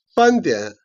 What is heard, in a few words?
"jian", Chinese